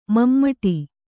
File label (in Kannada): "ಮಮಟಿ", Kannada